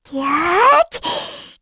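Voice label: Vox Casting, Korean; Lilia; kingsraid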